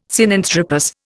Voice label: "Sinanthropus", Indian